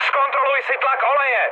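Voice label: damaged oil, Czech, mood high; War Thunder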